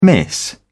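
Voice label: "miss", British English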